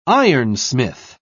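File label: "ironsmith", British English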